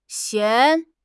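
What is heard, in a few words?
"xian2", Chinese; HSK4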